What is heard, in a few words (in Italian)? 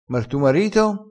"e quer", crespinese, vocabolario dialettale